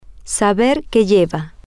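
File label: female, spanish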